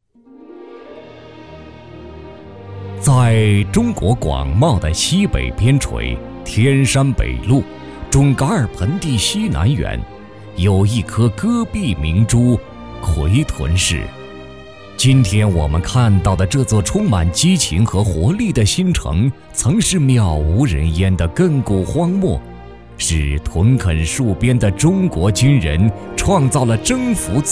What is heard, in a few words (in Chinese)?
男国 企业 集团专题片 沉稳